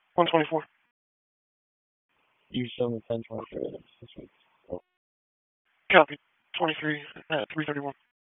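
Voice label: Police Southwest District Dispatch; Raleigh; wake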